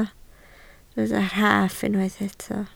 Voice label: "rhaff", Welsh